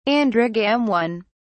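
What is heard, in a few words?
"androgamone", English, British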